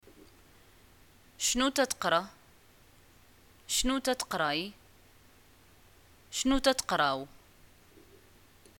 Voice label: what do u study, arabic, ma

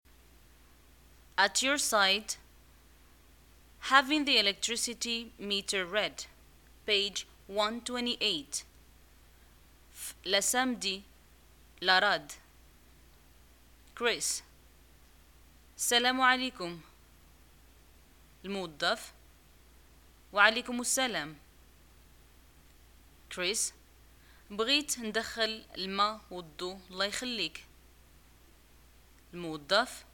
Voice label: dialogue, Arabic, North African, Moroccan

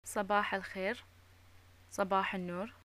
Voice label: greeting goodmorning, arabic

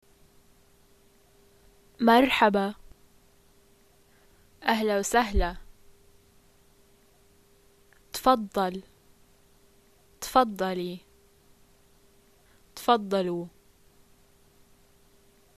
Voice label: welcome, arabic levantine

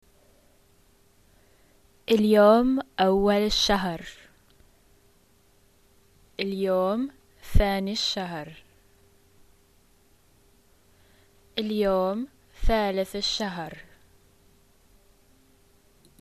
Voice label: first day of month, arabic levantine

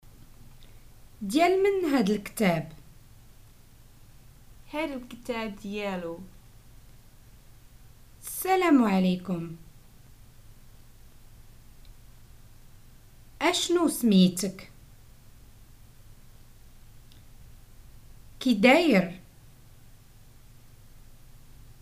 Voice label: prompts, Moroccan Arabic

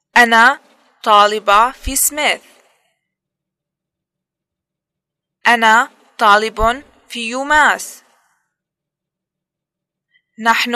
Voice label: introductions i am a student at, arabic levantine

